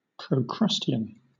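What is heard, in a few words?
"procrustean", British English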